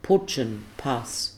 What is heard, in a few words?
"putyun" pus